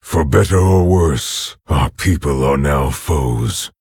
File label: Orc Emote Start